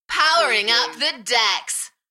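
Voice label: British female Powering up the decks Enthusiastic; beanstalk audio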